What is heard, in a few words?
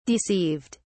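"deceived", English, British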